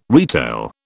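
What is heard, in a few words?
"retail", British English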